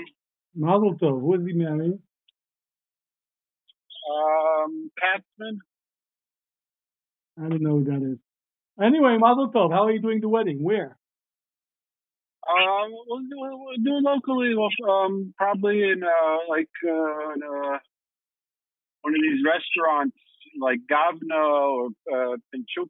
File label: Call recording